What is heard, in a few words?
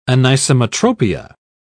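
"anisometropia", British English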